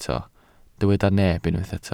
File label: "neb", Welsh